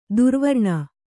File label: "ದುರ್ವ್ರಣ", kannada